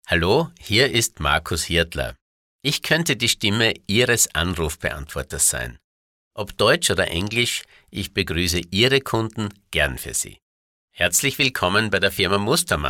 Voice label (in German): Sprecher Telefonansagen